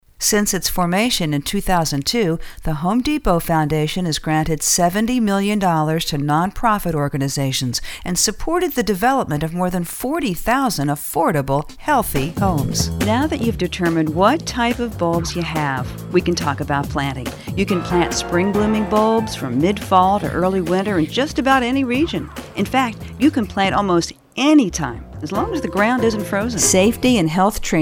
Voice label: Narration